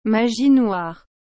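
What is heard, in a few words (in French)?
"magie noire", French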